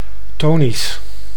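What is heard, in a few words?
"tonisch", Dutch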